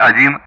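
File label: Speech Sound Russian; DCS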